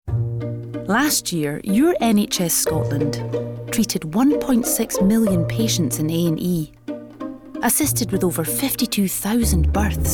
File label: Commercial; NHS Scotland